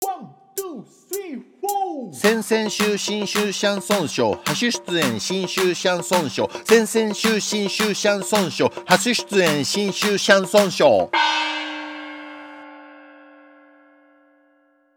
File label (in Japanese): 早口言葉リズム（中級編）